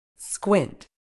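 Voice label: "squint", British English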